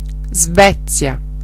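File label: "sweden", Italian; geography; gcompris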